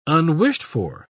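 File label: "unwished-for", English, British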